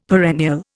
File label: "perennial", Indian; Dictionary